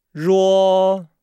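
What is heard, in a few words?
"ruo", Chinese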